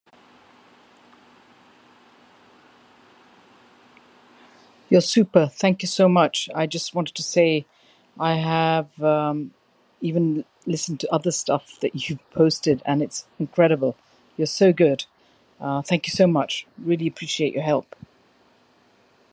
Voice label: Thank you so much testimonial message